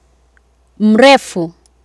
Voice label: "mrefu", Swahili

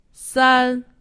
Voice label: "san", Chinese; Survival Chinese

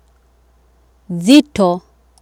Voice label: "nzito", swahili

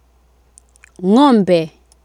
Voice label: "ng'ombe", Swahili

